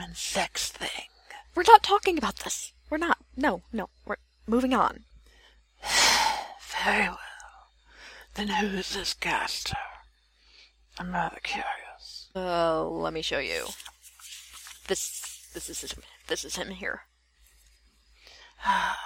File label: voice acting answering anonymous